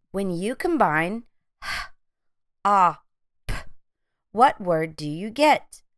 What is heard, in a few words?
h ŏ t phonemic blending